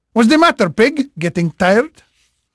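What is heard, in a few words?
Portuguese male, voice; Pirates Of The Caribbean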